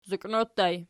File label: "zoqino", Archi